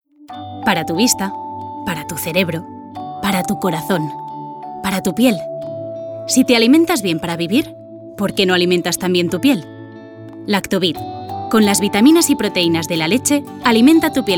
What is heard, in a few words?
female spanish comercial